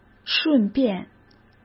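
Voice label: "顺便", Mandarin Chinese; chinese flashcards; hsk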